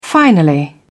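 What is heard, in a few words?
"finally", British English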